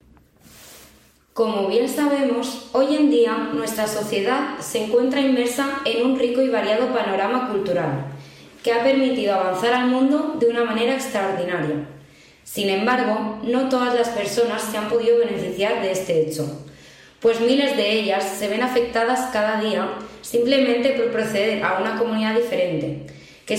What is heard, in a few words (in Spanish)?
audio narrativa; cartografias textiles